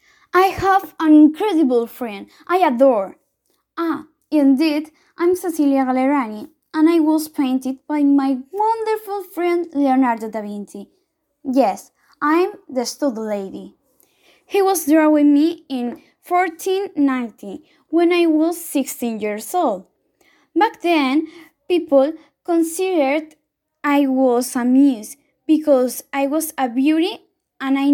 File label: dama de armino english